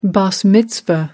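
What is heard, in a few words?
"bas mitzvah", British English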